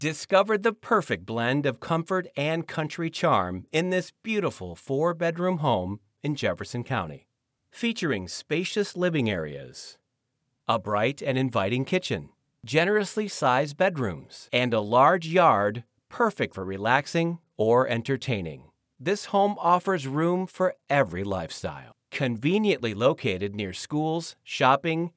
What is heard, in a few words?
Real Estate Narration